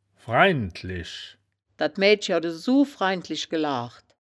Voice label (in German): "fraindlisch", woerterbuch, Wittlich